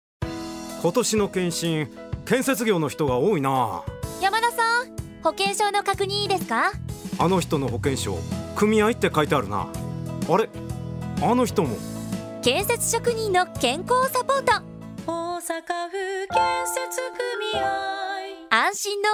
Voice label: radio; osaka